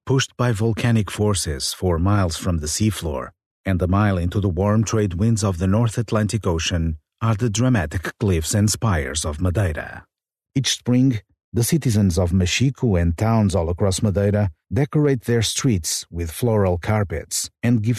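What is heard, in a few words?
Portuguese, male, English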